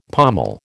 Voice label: "pommel", American